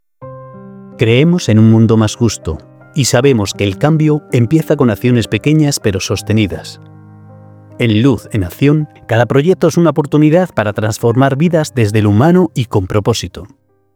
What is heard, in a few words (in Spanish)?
Demo para anuncio de campaña – CTA para captación de leads; Demo para ONG